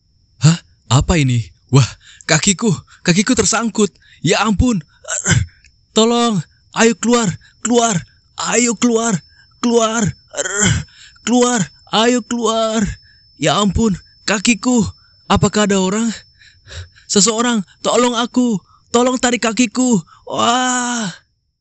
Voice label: Karakter Indonesia